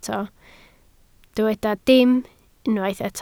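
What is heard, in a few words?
"dim" Welsh, spoken word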